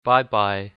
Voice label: goodbye, hakka